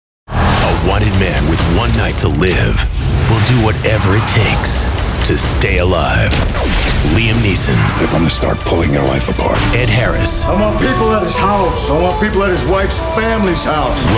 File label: trailers